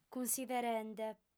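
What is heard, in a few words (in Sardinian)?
"cunsiderende", female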